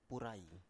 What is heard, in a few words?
"purai", Biak